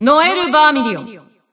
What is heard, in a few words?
System Voice; BBCP; Bullet